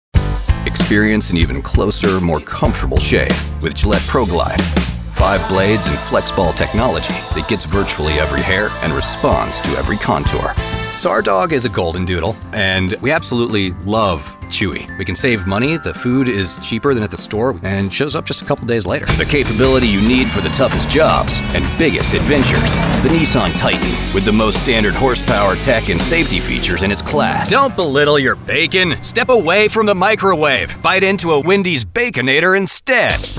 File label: Commercial